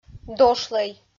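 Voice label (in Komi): "дошлӧй", Komi, female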